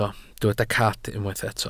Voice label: "cad", welsh